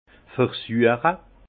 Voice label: "versueche", alsace; vin; goûter

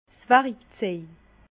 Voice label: "warikzej", Bas Rhin; les outils du vin

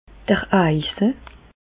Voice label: "eise", Bas Rhin; medecine; abcès

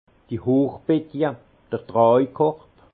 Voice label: "hochbettje", alsace; vin; hotte

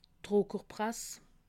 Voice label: "druckerprass", alsace

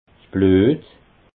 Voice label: "bluet", alsace

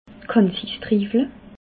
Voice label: "kansistriiwele", alsace

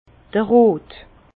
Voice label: "rot", Bas Rhin; vin; conseil

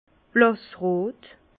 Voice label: "blassrot", Bas Rhin; vin; rouge clair

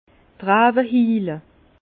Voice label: "rawe hiile", Bas Rhin; vin; vignes suintent pleurent

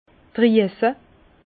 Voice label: "driese", Bas Rhin; vin

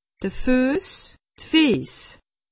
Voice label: "fuss", alsace; medecine

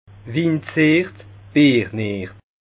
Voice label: "win", Bas Rhin

